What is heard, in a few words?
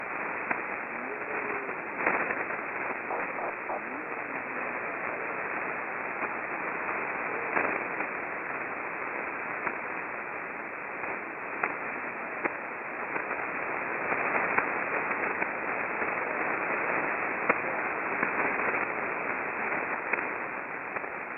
radio check, shortwave pirate radio, usb; 6925 usb; Rave On Radio